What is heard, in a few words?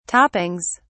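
"toppings", British English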